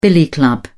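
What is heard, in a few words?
"billy club", English, uk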